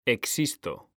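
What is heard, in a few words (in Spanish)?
"existo", Spanish; mekanta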